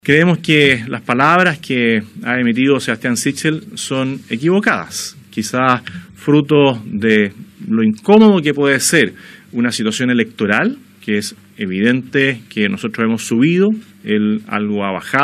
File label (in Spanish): CUÑA; KAST